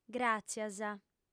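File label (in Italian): "gràtzias", lingua sarda, female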